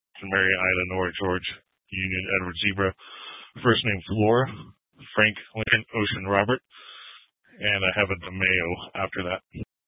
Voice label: Sheriffs Office, scanner

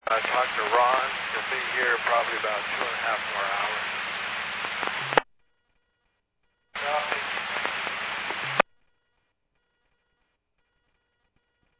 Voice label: Medevac, scanner; PHIAIREVACHelRad

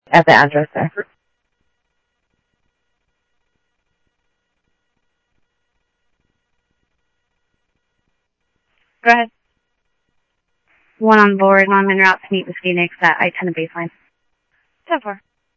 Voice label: Sheriff, scanner audio; SoutheastCenMesa; Sheriff-EastCnty; MCSO - EAST

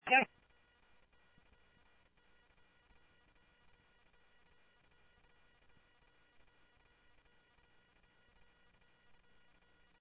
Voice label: Police, scanner; H-Scottsdale; ScottsdalePolice

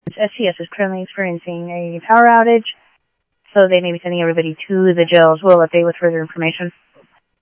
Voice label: Phoenix PD, scanner audio

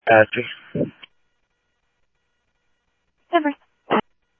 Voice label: Tempe Police, scanner audio; SimulcastF-Tempe

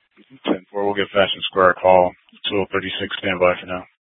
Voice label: PD Dispatch

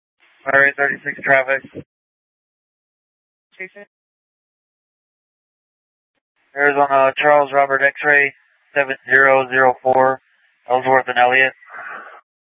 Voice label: Dispatch, scanner; Superstition; Mesa PD; TOPAZ Regional Wireless Cooperative (TRWC); Mesa Simulcast

